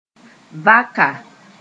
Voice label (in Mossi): "vaka", moore